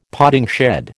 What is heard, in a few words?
"potting shed", American